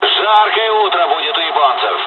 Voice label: dialog, dialogs russian; War Thunder